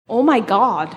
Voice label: saying oh my god minnesotan accent

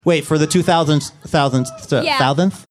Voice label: trouble saying thousandth

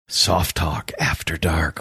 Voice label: quietly saying soft talk after dark

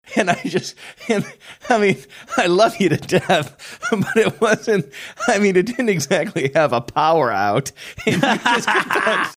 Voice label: laughing saying i love you to death

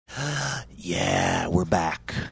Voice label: inhaling saying yeah were back funny

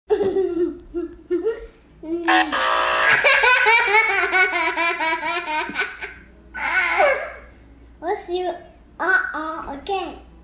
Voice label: uh oh robot

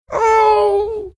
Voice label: funny; ohh cute

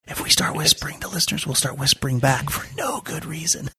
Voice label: whispering; if we start whispering

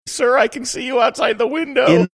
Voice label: saying siri can see you outside the window funny

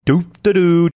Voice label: saying doot dah doo sing songy

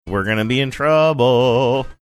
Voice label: saying we're gonna be in trouble sing songy

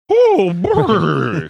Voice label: oh boy horse like

